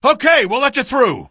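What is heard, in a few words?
citizen let you thru, male; vo; npc; source engine; episodic; episode 1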